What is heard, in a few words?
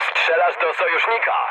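player friendly fire, mood low, Polish speech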